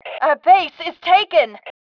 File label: our base taken, FEM